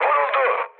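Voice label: Turkish aircraft destroyed, mood high, speech; War Thunder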